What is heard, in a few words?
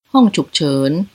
medical emergency room, thai